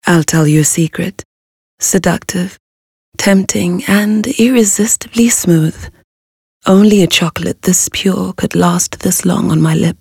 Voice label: English Intimate